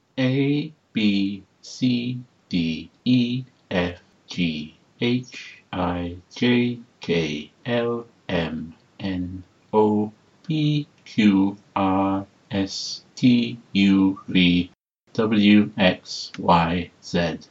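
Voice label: alphabet, British English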